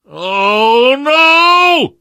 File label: fall short, survivor voice; source engine; left 4 dead 2; namvet